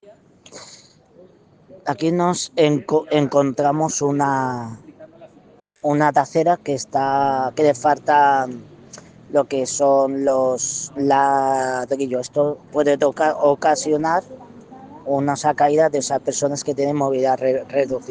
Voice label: Voice message